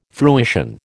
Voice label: "fruition", American

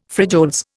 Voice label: "frijoles", Indian

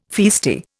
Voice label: "feisty", Indian

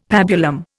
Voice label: "pabulum", Indian; Dictionary

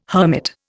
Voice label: "hermit", Indian

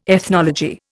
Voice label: "ethnology", Indian

